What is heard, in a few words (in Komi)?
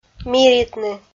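"миритны", Komi, female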